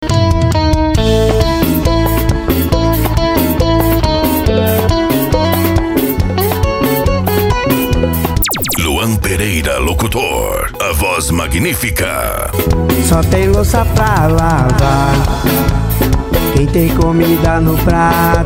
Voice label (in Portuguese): locutor a voz magnifica